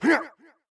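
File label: soldier voice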